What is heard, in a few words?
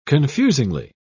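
"confusingly", British English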